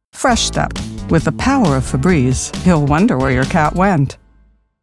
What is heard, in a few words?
Commercial; Fresh Step